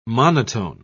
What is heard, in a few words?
"monotone", pronounce